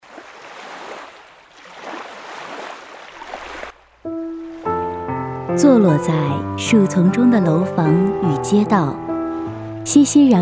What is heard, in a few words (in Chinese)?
专题纪录片美食